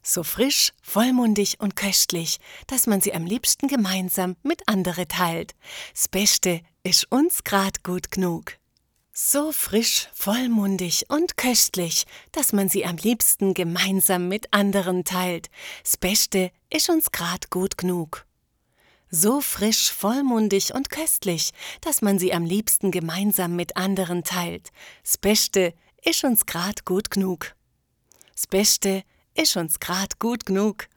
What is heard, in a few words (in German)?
schwaebisch; Stuttgart; Muttersprache Deutsch